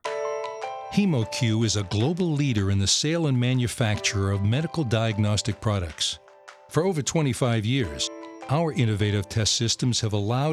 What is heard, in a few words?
narration; hemacue